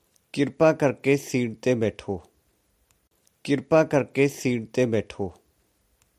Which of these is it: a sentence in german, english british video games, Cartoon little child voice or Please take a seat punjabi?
Please take a seat punjabi